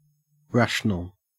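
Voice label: "rational", Australian English; pronunciations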